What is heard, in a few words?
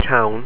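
"tonn", Irish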